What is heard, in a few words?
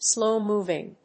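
"slow-moving", English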